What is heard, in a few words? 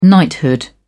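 "knighthood", English, British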